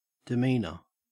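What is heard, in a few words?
"demeanour", Australian English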